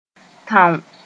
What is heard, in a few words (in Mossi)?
"tão", moore